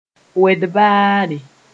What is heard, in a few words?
"wed-baare", Moore